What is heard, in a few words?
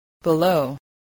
"below", English